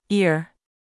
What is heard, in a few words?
"ear", pronunciation vowel